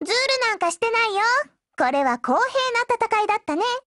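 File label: WIN Japanese, Voice; Liberator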